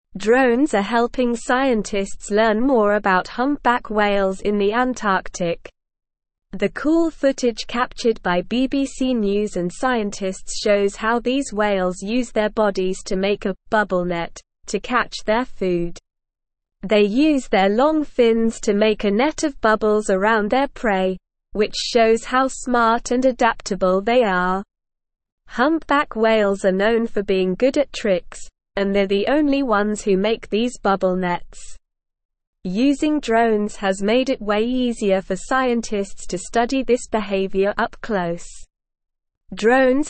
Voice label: English SLOW Reading; Drones Capture Acrobatic Whales and Aid Conservatio

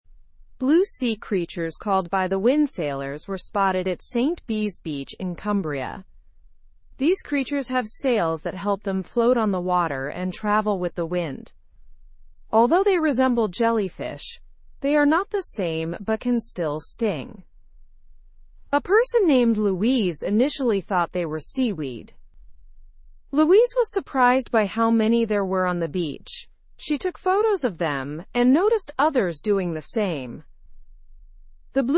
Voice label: english news lower intermediate; by the wind sailors visit st bees beach

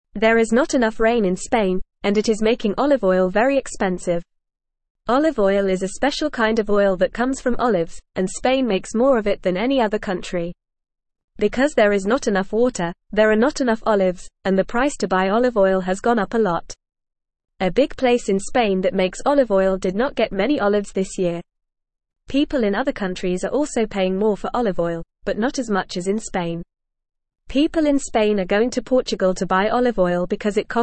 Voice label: English Beginner FAST Reading; Not Enough Rain in Spain Makes Olive Oil Expensive; English Newsroom

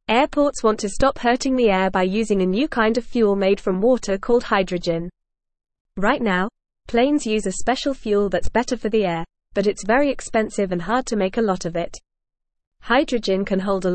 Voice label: English Beginner FAST Reading; Airports try new fuel made from water; English Newsroom

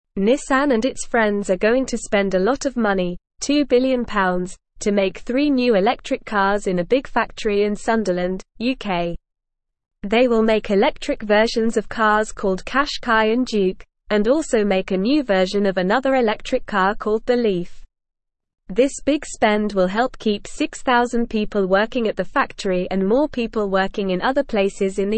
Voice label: English Lower Intermediate NORMAL Reading; English Newsroom; Nissan makes new electric cars in UK

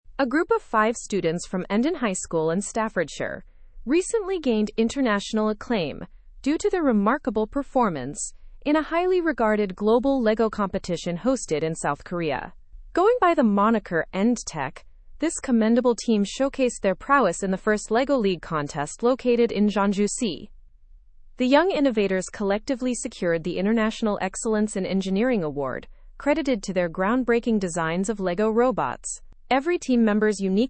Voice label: english news advanced; endon high students triumph at global lego competition

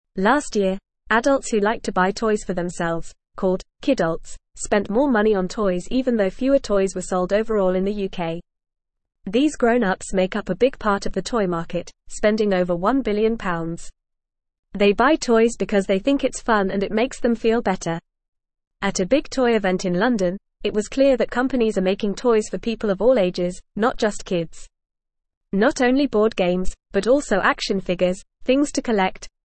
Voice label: English Lower Intermediate FAST Reading; Grown ups are buying toys for themselves

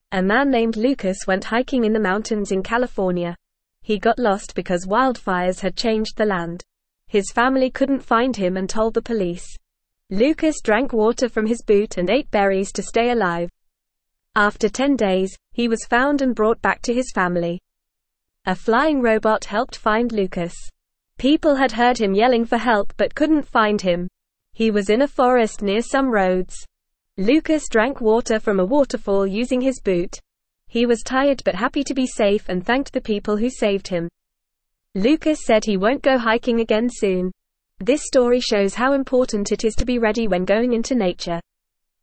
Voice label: English Newsroom Beginner FAST Reading; Lost Hiker Survives in Mountains with Help

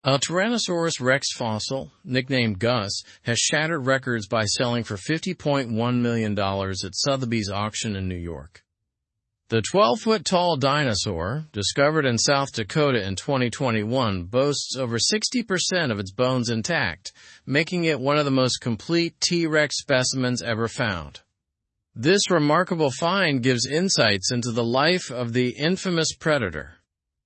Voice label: english news upper intermediate; tyrannosaurus rex fossil fetches record price

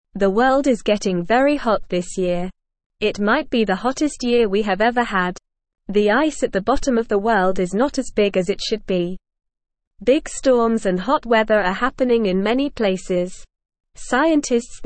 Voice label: Beginner NORMAL Reading, English; English Newsroom; The World is Getting Hot What Can We Do

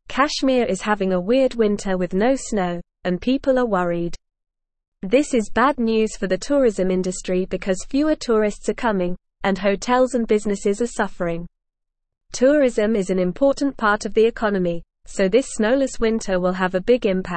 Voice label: English Upper Intermediate NORMAL Reading; English Newsroom

